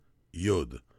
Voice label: "Yod", Hebrew